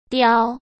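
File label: "雕", Mandarin Chinese; chinese flashcards; hsk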